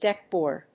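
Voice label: "deck board", English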